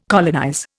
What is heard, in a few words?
"colonize", Indian